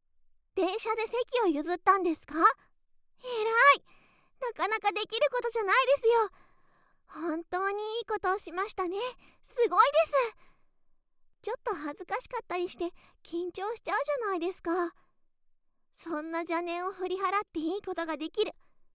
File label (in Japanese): 褒め 電車で席を譲ってえらい！